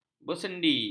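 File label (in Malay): "bersendi", Malay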